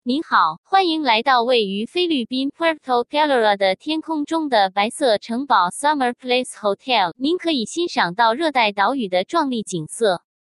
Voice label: Chinese Welcome